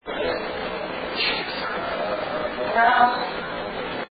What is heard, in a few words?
EVP slowed down Chase Us male voices and female; Henderson Home; female voice No